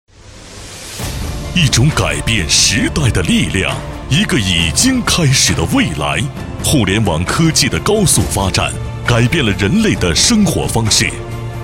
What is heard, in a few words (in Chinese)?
男声, 浑厚大气, 国语; 不良资产网; 交广主播